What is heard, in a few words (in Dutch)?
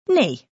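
"nee", Dutch; The Netherlands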